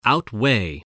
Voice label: "outweigh", English voice